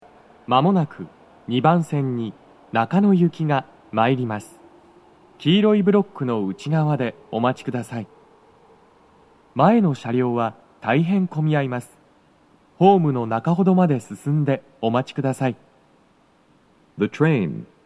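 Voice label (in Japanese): 接近放送, 中野行 (混雑付帯), 男声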